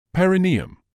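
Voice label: "perineum", British English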